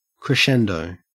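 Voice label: "crescendo", Australian English; pronunciations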